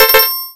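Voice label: voice Korean, keytone; SKY IM-A830S-VEGA-Racer-2; lowbattery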